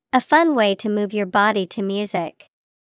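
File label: dancing, English vocabulary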